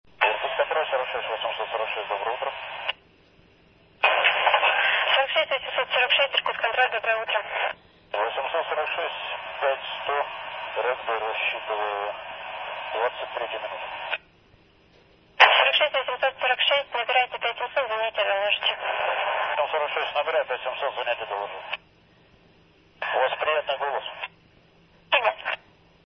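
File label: priatnij golos, pleasant voice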